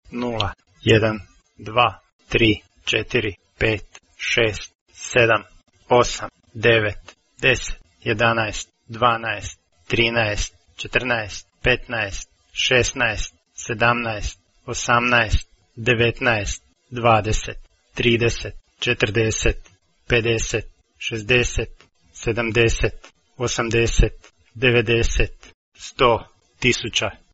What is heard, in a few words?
numbers croatian